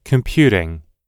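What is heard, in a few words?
"computing", US English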